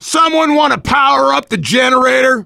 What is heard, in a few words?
finale setup, voice, biker; Left 4 Dead 2; left4dead2 dlc2; survivor